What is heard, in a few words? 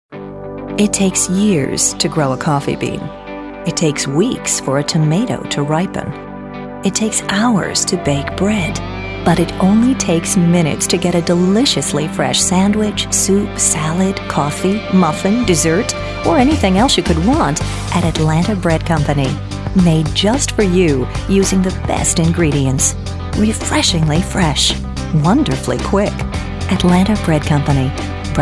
upbeat; Atlanta Bread Company